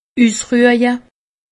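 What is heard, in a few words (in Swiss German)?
"usruehje", Alsatian; medecine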